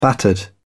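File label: "battered", British English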